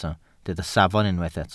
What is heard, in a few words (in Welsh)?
"safon", Welsh